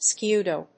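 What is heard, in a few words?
"skidoo", English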